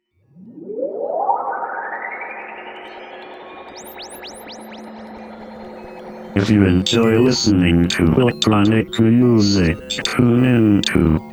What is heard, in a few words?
Promo NO MUSIC; radio promos; Spring 2007 - FX only